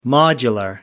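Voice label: "modular", British English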